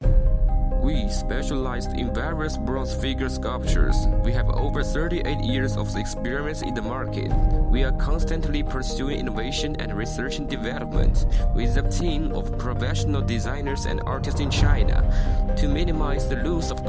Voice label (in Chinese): 产品介绍